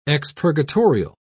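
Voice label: "expurgatorial", British English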